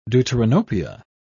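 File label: "deuteranopia", British English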